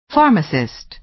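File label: "pharmacist", American English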